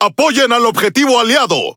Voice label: Es Speech Quick Commands Ally Ship; World Of Warships